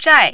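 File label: "zhai4", mandarin, falling tone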